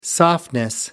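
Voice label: "softness", British English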